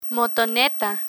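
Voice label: "motoneta" Spanish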